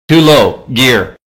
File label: boeing 737 too low gear